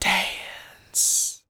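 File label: dance, female spoken; ILIO; Vocal Planet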